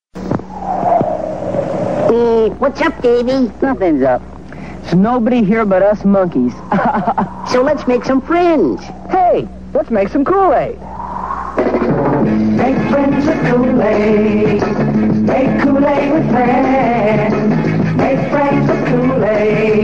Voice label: Commercial; Kool-Aid; 1969